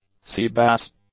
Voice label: "seabass", English; nouns